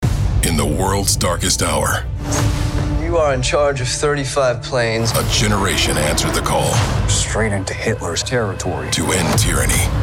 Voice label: Trailer